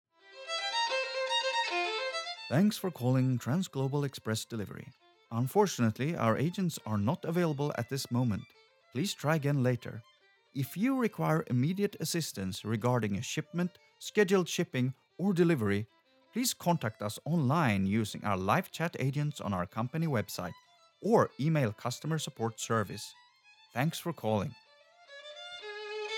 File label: english